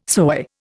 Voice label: "soy", Indian